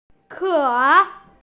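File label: "ke3", Mandarin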